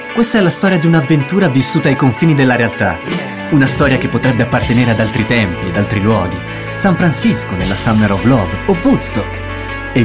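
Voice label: italian Active Energetic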